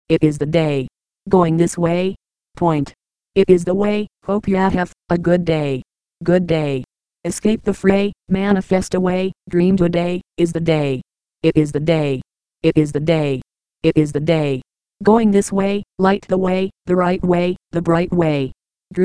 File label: voice synth it is the day